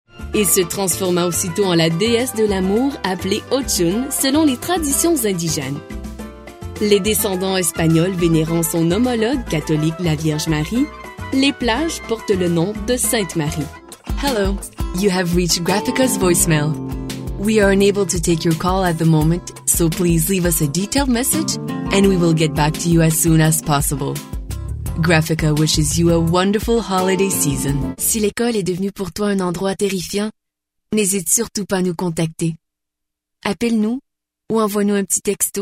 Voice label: demo narration